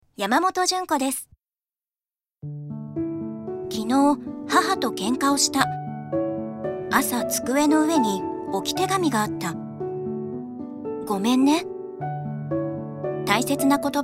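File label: commercial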